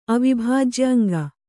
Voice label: "ಅವಿಭಾಜ್ಯಅಂಗ", Kannada

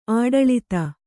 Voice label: "ಆಡಳಿತೆ", Kannada

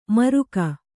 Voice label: "ಮೂರ್ಕ", Kannada

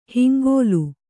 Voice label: "ಹಿಂಗುಲ", Kannada

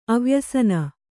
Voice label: "ಅವ್ಯಸನಿ", Kannada

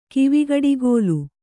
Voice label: "ಕಿವಿಗಡಿಗೋಲು", Kannada

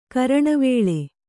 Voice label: "ಕರಣವೇಳೆ", Kannada

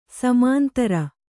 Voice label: "ಸಮಾಂತರ", Kannada

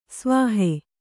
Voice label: "ಸವಿಹ", Kannada

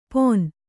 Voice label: "ಪೊನ್", Kannada

